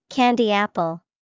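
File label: candy apple, English vocabulary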